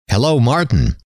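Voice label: "hello", male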